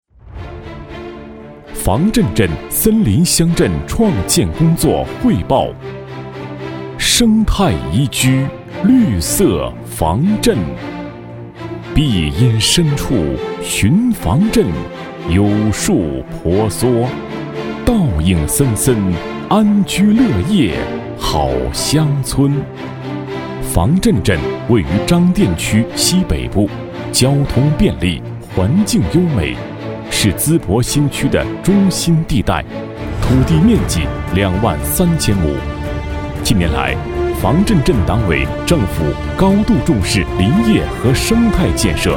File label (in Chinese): 男（大气正式）